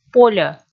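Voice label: "польӧ", Komi, female; kpv audio dict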